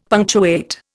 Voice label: "punctuate", Indian; Dictionary

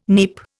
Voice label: "neep", Indian

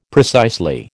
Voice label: "precisely", American

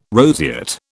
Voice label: "roseate", British

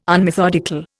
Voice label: "unmethodical", Indian

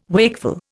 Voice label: "wakeful", Indian

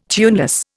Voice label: "tuneless", Indian

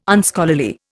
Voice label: "Unscholarly", Indian

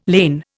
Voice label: "lain", Indian

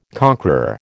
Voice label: "conqueror", American

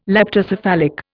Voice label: "leptocephalic", Indian

